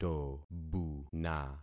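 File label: "tobuna", concatenated syllables; Affixing experiment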